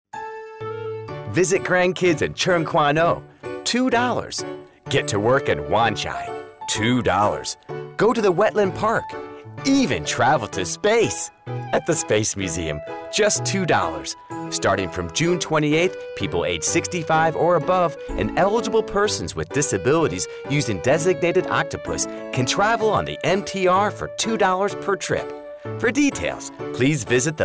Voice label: Eng Radio